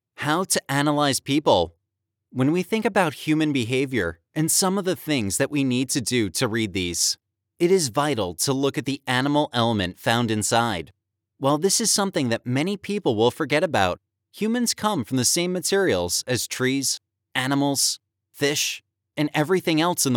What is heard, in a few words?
Audiobook eLearning Narration